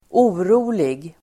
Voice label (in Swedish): "orolig", Swedish